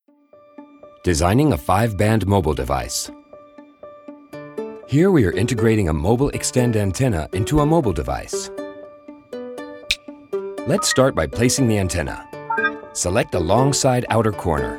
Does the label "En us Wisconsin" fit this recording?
No